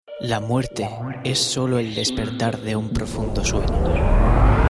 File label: spanish